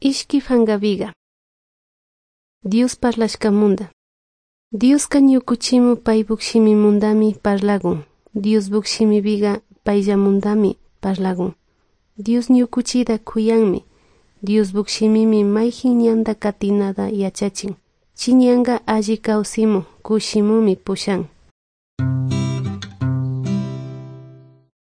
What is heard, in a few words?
good news quichua de salasaca